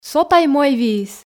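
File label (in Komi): "со тай мойвиис", Komi, female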